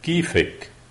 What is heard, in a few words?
how are you, lebanese, arabic